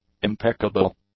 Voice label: "impeccable", English; adjectives